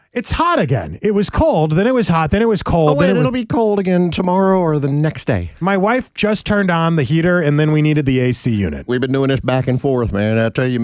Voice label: RADIO AD; nicks plumbing radio ads